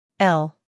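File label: "l", American English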